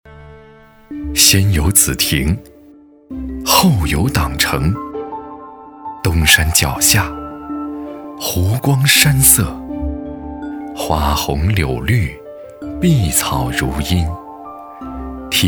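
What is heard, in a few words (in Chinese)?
景区宣传磁性优美; 紫亭湖; 声音特点大气浑厚且富有磁性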